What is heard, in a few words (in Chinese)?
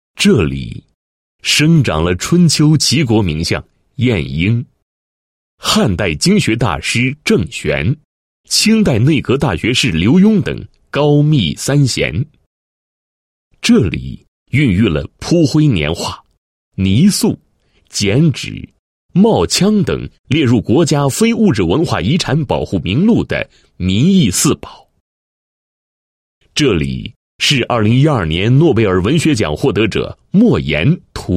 男 申报材料 人力资源服务产业园申报, 国语男声配音